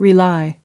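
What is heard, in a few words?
"rely on someone something", English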